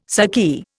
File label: "saki", Indian